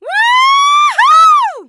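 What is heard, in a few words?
"woohoo", male; k lab; hl2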